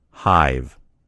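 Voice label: "hive", American English; gcompris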